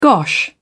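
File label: "gosh", English, British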